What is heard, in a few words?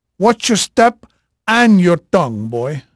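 Portuguese male, voice; Pirates Of The Caribbean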